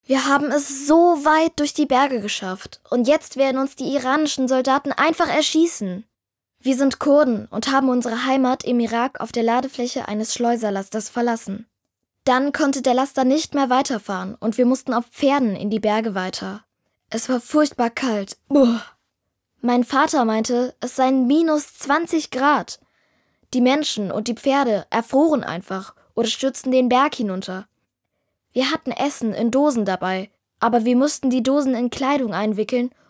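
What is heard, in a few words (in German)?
franz audiotour, Kindersprecher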